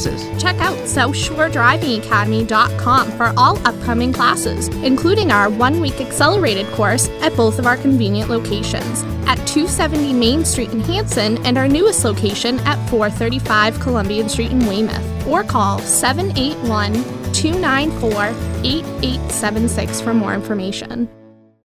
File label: commercial, Driving